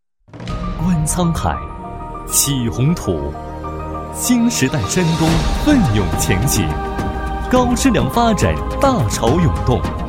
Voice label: 栏目解说; 山东

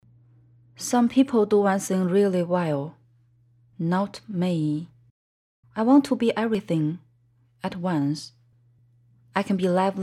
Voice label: 英语广告

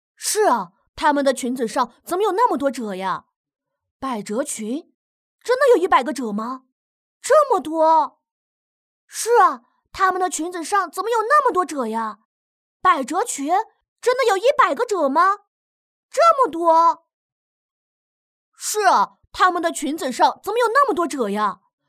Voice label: 男童声; 男童声个声线

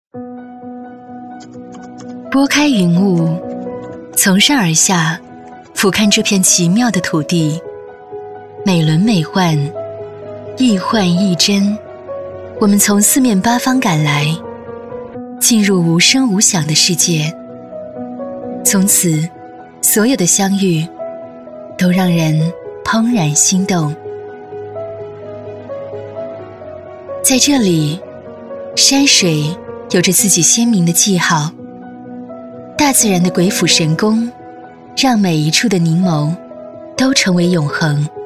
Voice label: 清新唯美风景片样音; 最美溧水

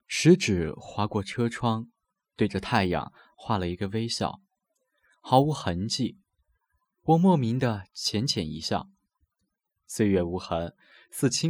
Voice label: 抒情散文

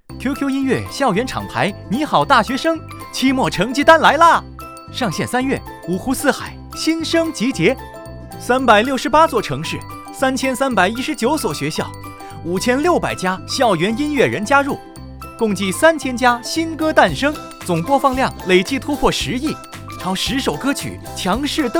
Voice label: 音乐广告

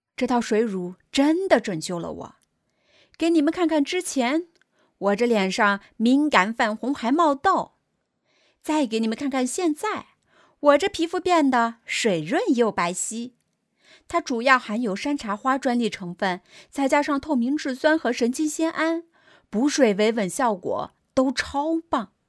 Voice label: 短视频配音

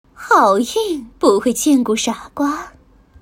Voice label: 御姐

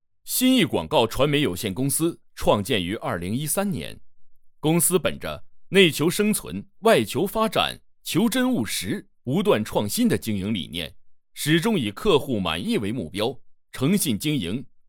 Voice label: 传媒 介绍 高频 干音

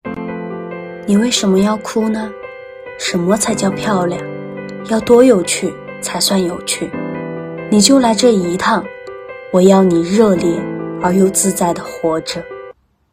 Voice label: 知性独白, 女, 青年, 普通话

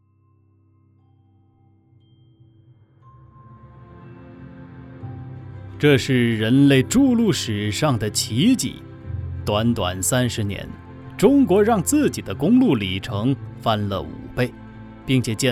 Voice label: 雄浑大气

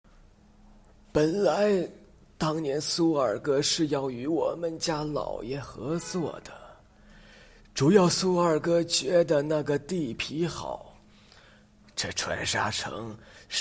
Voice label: 老人声

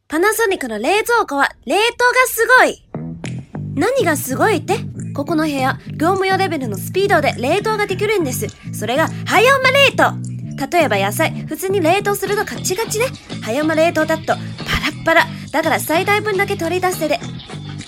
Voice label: 日语 广告

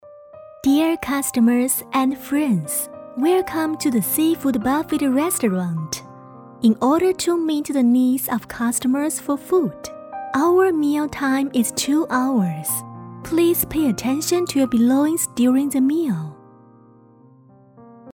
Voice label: 英文温馨提示温柔甜美亲切

